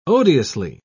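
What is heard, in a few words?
"odiously", English, British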